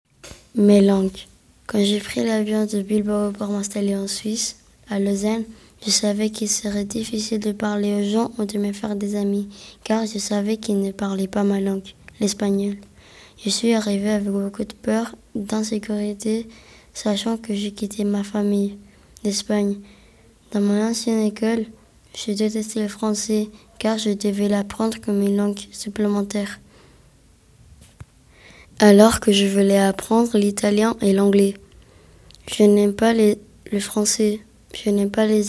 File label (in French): langues fr; mes langues